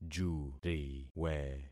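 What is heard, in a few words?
"juriwe", concatenated syllables; Affixing experiment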